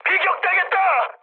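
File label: Korean aircraft damaged mood high; War Thunder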